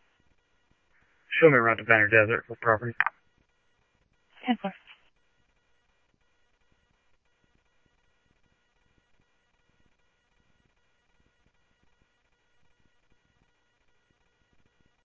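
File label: Mesa Police Department, scanner audio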